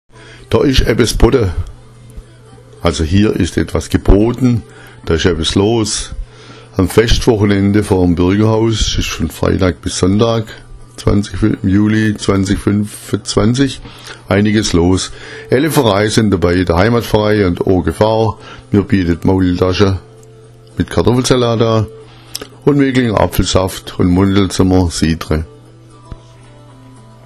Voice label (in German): bodda, schwaebisch